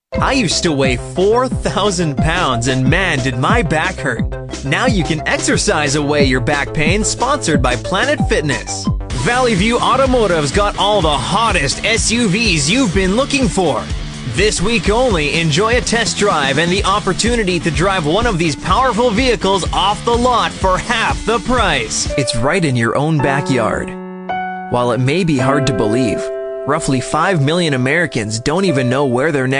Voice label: USA